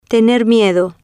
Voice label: "tener miedo", Spanish

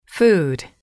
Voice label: "food", english

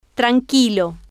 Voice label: "tranquilo", Spanish

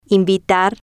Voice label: "invitar", Spanish

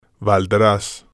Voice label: "valdras", spanish

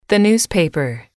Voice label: "the newspaper", English

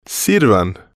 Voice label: "sirvan", spanish

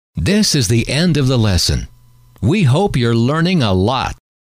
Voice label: This is the end of the lesson, M

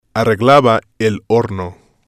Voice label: "arreglaba el horno", spanish

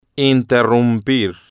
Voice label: "interrumpir", Spanish

